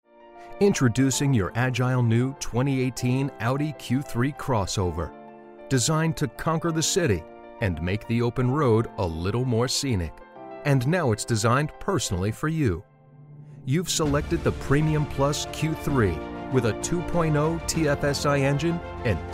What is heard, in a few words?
Corporate Narration